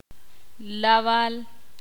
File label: ixil; diez